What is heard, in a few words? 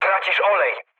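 damaged oil, mood med, Polish speech; War Thunder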